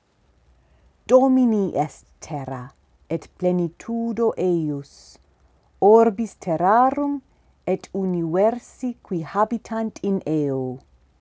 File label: Hear It In Classical Pronunciation; domini